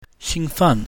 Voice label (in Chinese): "xing fen", Mandarin